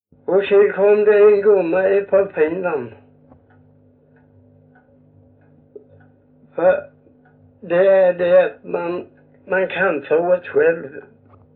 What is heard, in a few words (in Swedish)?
Visseltofta SK, dialekter; Folkminnen; Svenska sagor